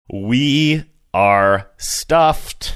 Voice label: saying we are stuffed sing songy manner